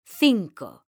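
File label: "CINCO", Spanish, female; mekanta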